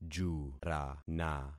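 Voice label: "jurana", concatenated syllables; Affixing experiment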